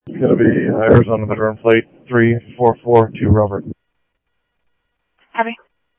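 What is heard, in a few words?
Simulcast police department, scanner audio trunk; Mesa Simulcast; MESA PD 3 Red Mt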